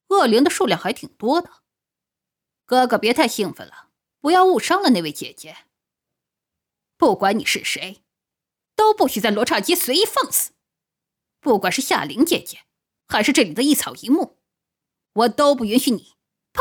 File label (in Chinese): 少年